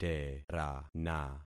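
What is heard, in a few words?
"terana", concatenated syllables; Affixing experiment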